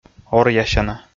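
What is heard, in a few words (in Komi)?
"оръясяна", Komi, male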